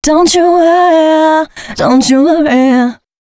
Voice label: female, Dance Ultra Voice hooklines

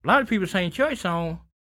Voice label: SPOKEN, Blues Soul, TALK

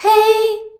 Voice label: "HEY" A, FEMALES

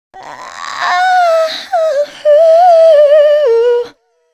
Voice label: female 132, Dance Ultra Voice; Mutekki Media

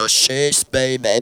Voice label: "she baby", Funky Vocals; USB Soundscan vol.18; AKAI

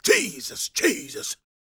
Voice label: PREACHIN, Gospel, PREACHER

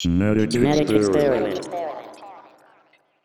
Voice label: Genetic Experiment, Robotic Vox; Zero-G Club Techno

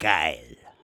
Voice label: "geil", shouts; Best Service; Hyper Dance

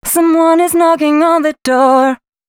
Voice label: female a 140, short hooks, Dance Voice; Mutekki Media; Dance Ultra Voice VOL-1

